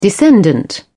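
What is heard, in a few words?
"descendant", British English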